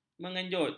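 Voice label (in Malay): "mengenjut", Malay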